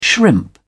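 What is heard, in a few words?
"shrimp", British English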